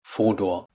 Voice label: "fodor", Anglo Saxon